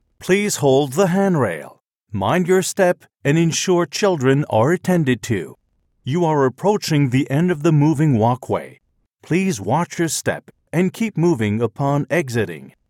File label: English secondary